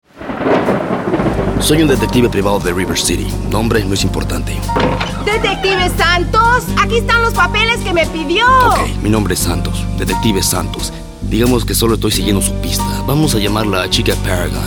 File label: spanish males